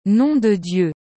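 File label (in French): "Nom de Dieu", French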